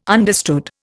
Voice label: "understood", Indian